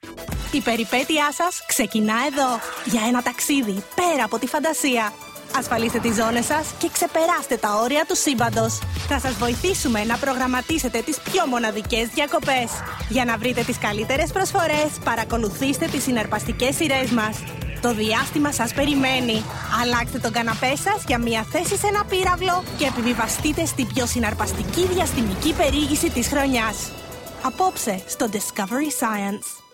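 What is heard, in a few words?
Greek general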